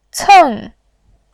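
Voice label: "ceng", Mandarin Chinese, fourth tone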